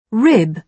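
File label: "rib", British English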